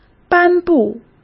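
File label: "颁布", Mandarin Chinese; chinese-flashcards; hsk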